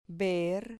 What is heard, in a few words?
"ver", Spanish syllable